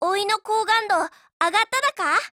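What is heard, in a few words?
HELLO Japanese; Type82S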